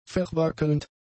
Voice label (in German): "verwackelnd", German; adjectives